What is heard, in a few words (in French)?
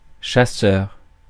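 "chasseur", French; Mots francais phonemes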